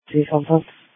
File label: Police Dispatch